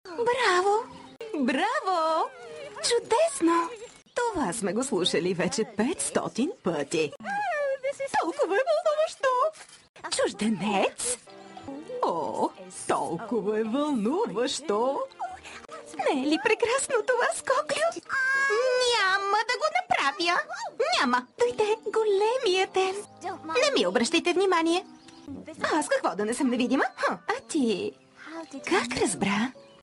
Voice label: Cartoon characters

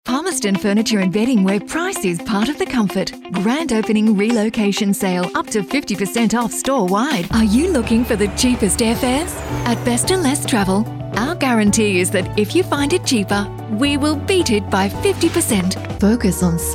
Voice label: soft read; WJR

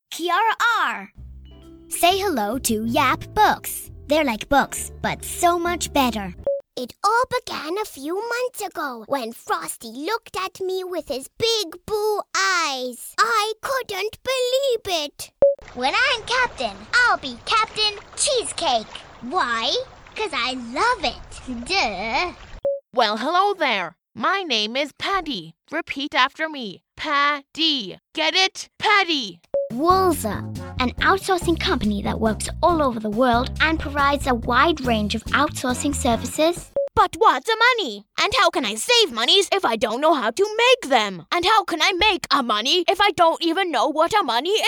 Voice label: CHARATER VOICES; English (North American)

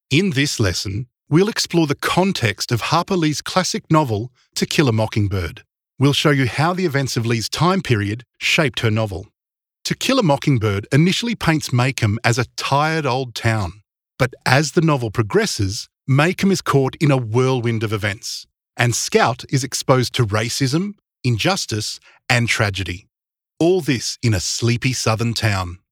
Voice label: ELEARNING VO only; TKAMB

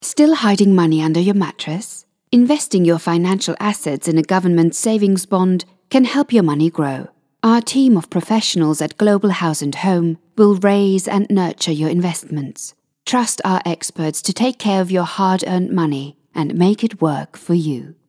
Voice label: Corporate

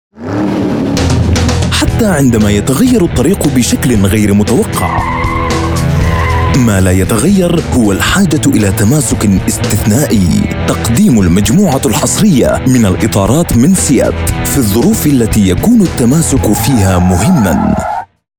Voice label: Corporate Standard Arabic

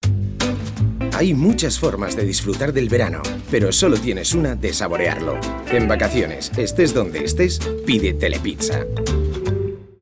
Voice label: SPOT TV; telepizza

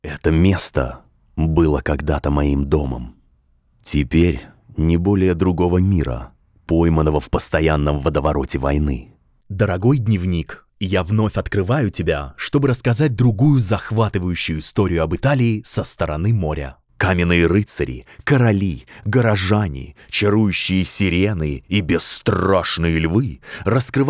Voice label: AUDIOBOOK RUSSIAN DRY

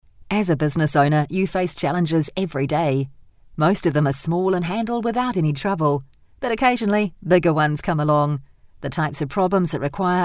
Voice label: Explainer Video NZ; TAB

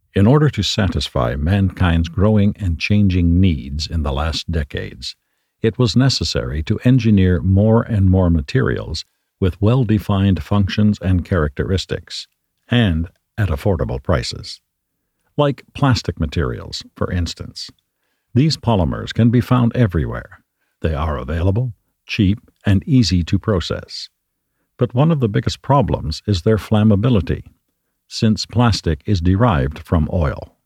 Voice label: Corporate Video

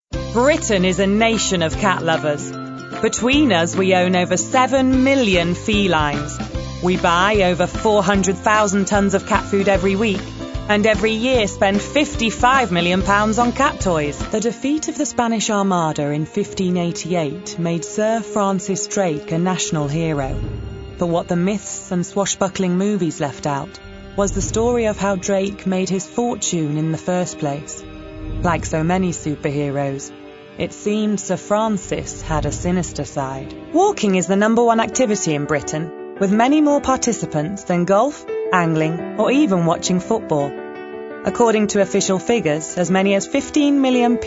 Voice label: DOCUMENTARY MONTAGE

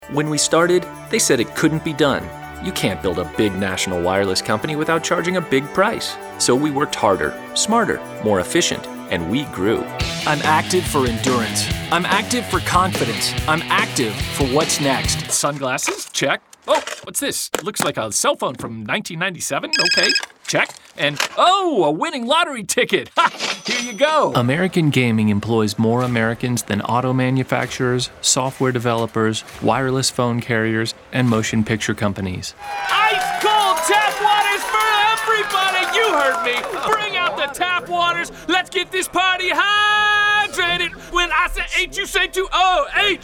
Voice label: Commercials

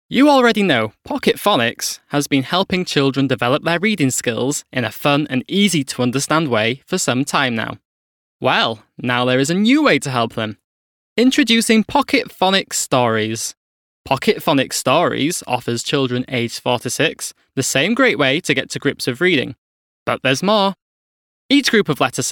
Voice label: corporate VOICEREEL

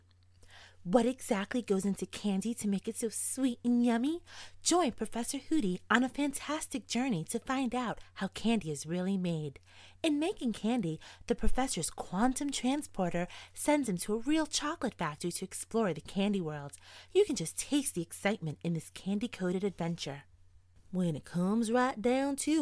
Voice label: character voice samples

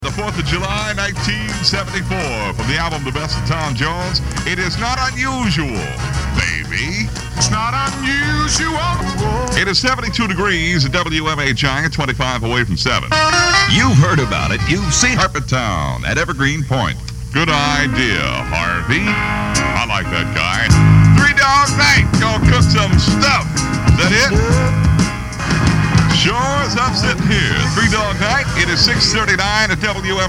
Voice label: Air Check

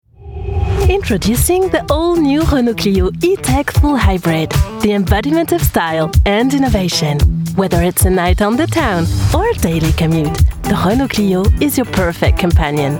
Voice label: Commercial english with french accent

